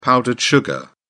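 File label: "powdered sugar", British English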